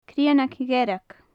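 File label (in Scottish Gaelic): "cruinneag dhearg", Gaelic; large red damselfly; cruinneagan dearga; Faclan Nàdair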